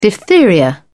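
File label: "diphtheria", British English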